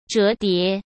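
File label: "折叠", Mandarin Chinese; chinese-flashcards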